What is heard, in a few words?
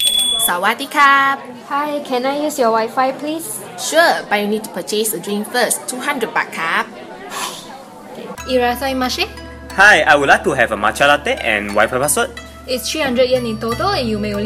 radio ad